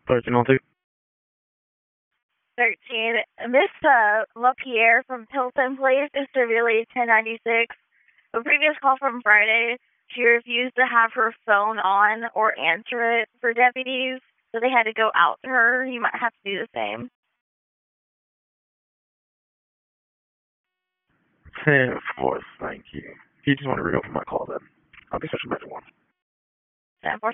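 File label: Sheriff's Office Dispatch 1, p25; WSO DISP 2; wake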